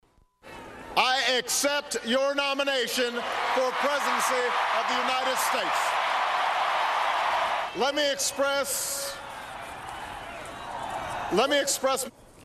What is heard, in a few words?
Acceptance Speech